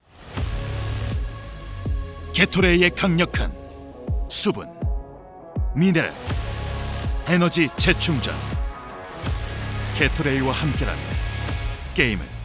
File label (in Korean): 광고 힘찬 스포츠음료